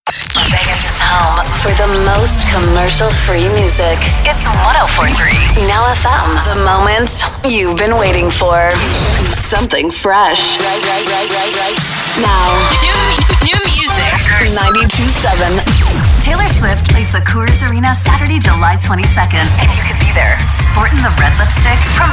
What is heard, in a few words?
radio women chr, radio imaging